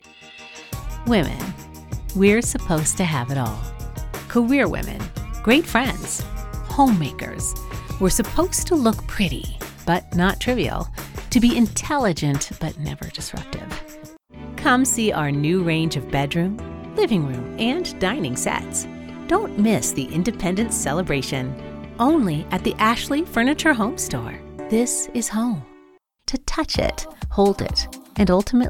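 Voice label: English US, female, commercial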